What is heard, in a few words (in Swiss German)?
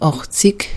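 "àchtzig", Alsatian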